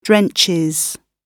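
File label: "drenches", British English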